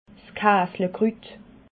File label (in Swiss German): "kaaslekrut", alsace